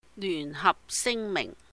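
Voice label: "Lyùhn Hahp Sìng Mìhng", Joint Declaration, Cantonese; 聯合聲明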